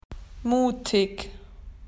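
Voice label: "well done", Australian English; PictureDictionary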